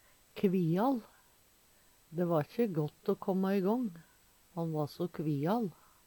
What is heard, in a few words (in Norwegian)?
kvial, Numedalsmål; Adverb